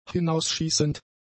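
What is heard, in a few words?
"hinausschießend", German; adjectives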